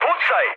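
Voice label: Serbian attack position mood med, speech; War Thunder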